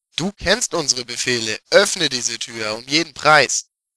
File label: dialog